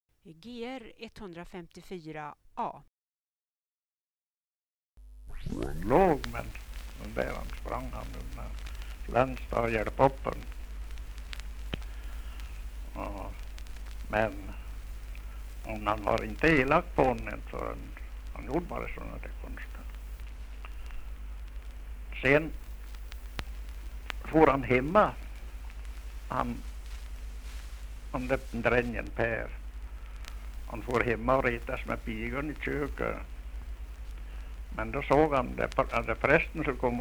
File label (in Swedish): dialekter, Svenska sagor; Folkminnen